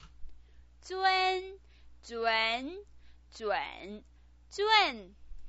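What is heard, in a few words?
"zun", Mandarin Chinese pinyin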